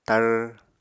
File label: "tar", apatani